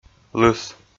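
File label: "лыс", Komi, male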